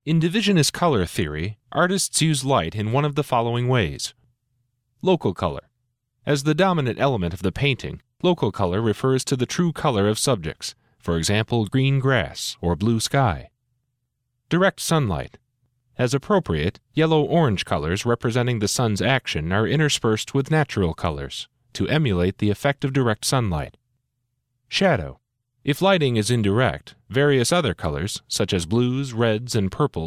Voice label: eLearning, commercial voiceover